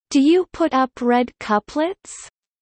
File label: low; spring festival